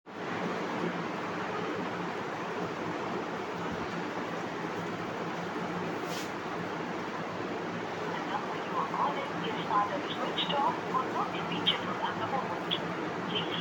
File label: call recording